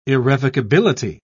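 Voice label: "irrevocability", British English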